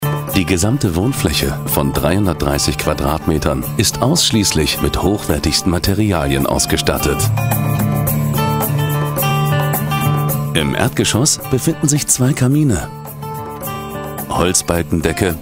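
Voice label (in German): Kommentar Freundlich; Fremdtake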